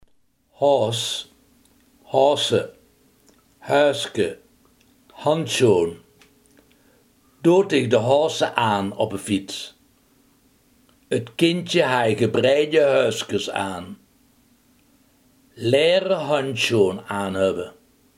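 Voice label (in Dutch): handschoen, Limburgse gesproken woordenboeken; handschoen, haos (haose, häöske), handjsjoon; Doot dich de haose aan oppe fiets.; 't Kindje haaj gebreidje häöskes aan.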